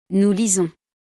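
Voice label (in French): "nous lisons", French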